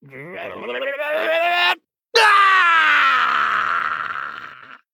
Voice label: Male Gnome Death; Scabbs